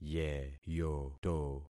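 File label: "yeyoto", concatenated syllables; Affixing experiment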